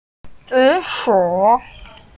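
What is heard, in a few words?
"zi3 shu3", Mandarin Chinese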